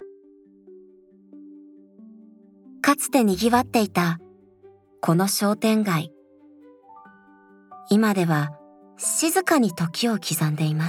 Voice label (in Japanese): ボイスサンプル ナレーション（BGM有）